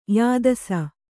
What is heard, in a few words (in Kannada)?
"ಯಾದಸ", Kannada